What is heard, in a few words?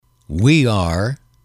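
we are, male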